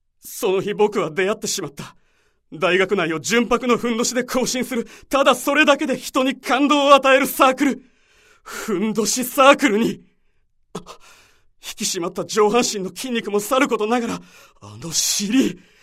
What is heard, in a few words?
narration